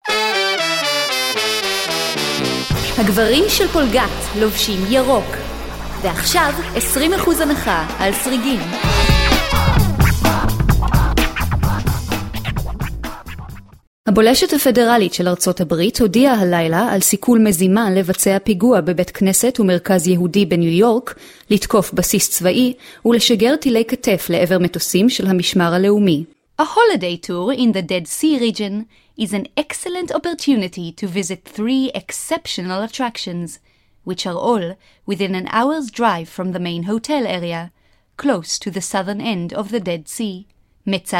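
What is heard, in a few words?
HE General, Hebrew; GoLocalise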